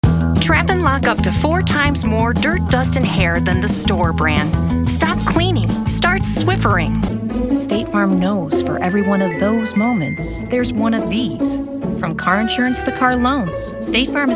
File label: Commercial Voiceover